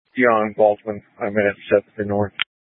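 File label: Police Dispatch, Scanner audio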